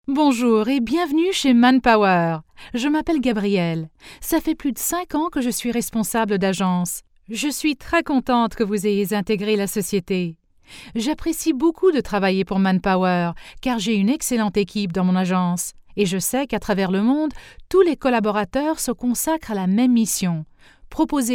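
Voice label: French; manpower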